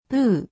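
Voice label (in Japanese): "ぷ", 日本語; 五十音基礎聽解題目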